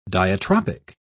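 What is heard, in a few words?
"diatropic", British English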